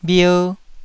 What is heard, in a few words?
"bhier1", taiwanese